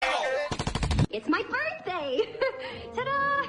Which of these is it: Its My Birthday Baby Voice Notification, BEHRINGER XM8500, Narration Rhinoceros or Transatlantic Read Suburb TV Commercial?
Its My Birthday Baby Voice Notification